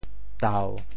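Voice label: "tau7", Teochew; 读; 潮州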